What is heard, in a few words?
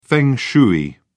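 "feng shui", English, British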